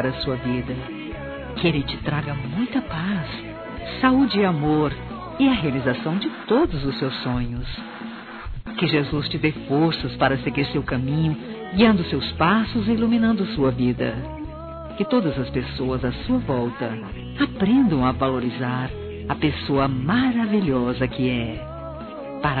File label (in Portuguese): ANIV. TIA MADRINHA F